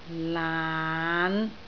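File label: "laan5", Cantonese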